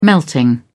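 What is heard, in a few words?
"melting", British English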